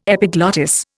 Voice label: "epiglottis", Indian

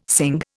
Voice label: "sing", Indian

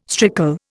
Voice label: "strickle", Indian

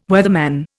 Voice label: "weatherman", Indian

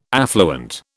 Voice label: "affluent", British

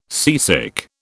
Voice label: "seasick", British

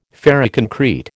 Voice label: "ferroconcrete", American

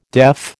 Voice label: "deaf", American

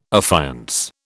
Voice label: "affiance", British

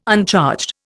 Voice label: "uncharged", Indian

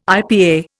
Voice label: "IPA", Indian

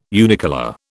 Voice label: unicolour, British

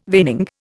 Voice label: "veining", Indian

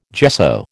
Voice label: "gesso", American

